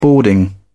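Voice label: "boarding", British English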